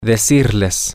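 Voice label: male, spanish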